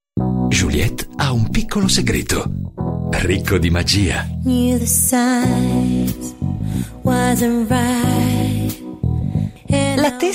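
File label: M IT commercial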